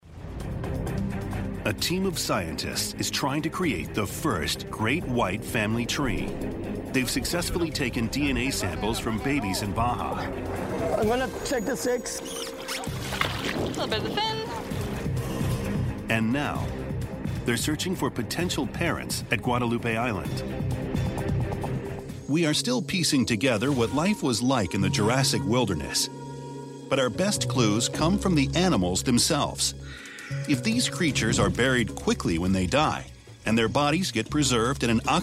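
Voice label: US English, Narrative; GoLocalise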